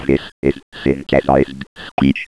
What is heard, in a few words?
this is synthesized speech